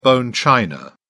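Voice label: "bone china", British English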